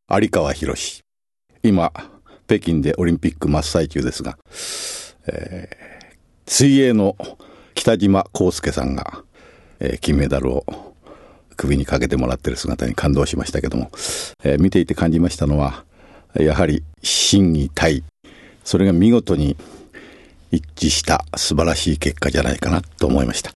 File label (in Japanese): free talk, male voice